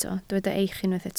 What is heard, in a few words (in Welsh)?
"eich" Welsh